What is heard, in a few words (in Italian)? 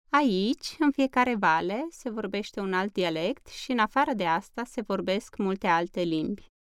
Rumeno